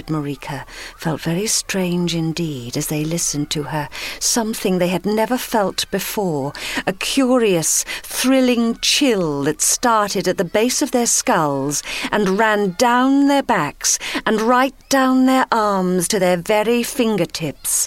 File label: audiobook